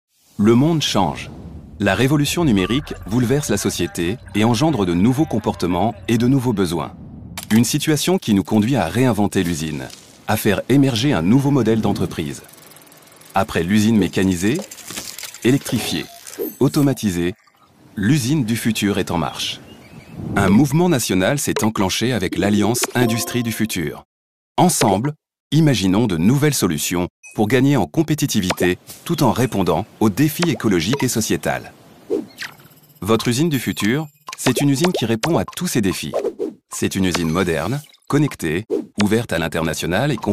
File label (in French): Voix Off Instit; USINEDUFUTUR